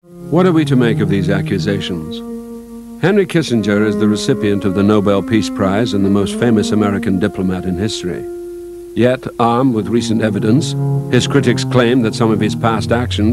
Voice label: Documentary